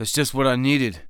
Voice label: Thanks, Human Male; zps; HumanMale-01